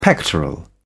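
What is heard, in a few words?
"pectoral", British English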